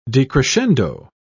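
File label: "decrescendo", British English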